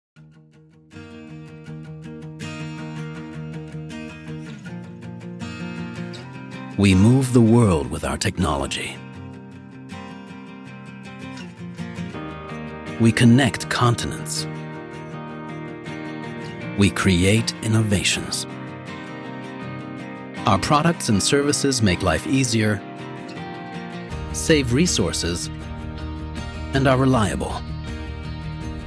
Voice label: SOCIAL SUSTAINABILITY IMAGEFILM ENGLISH; Veredon; Thyssen Krupp

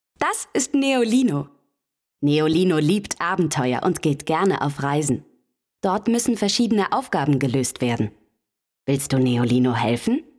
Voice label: E-Learning Kids

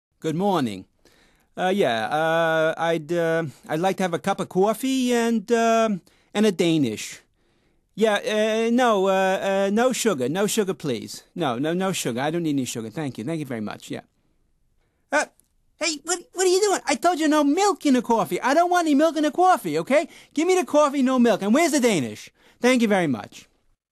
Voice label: neurotic new yorker; LOFT VOICES

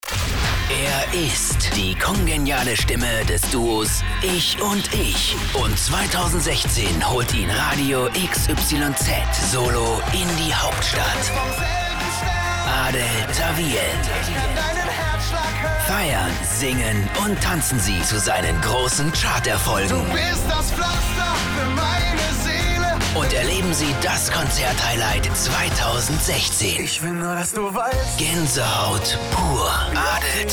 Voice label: Station Voice Trailer

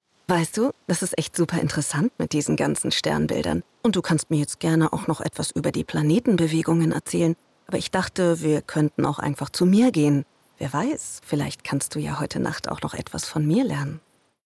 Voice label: Just sexy

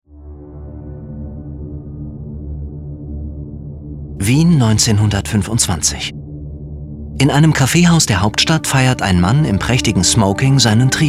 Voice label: Feature, German; voice

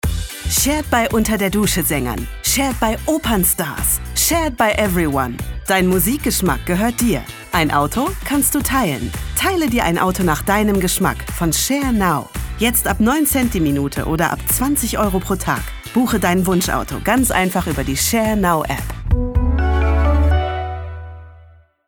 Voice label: Brand Voice Spotify; ShareNow

